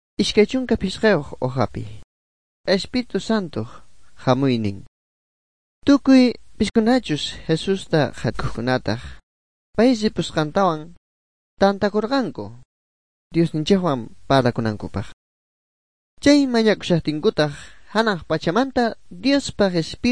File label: good news quechua bolivian sucre; bible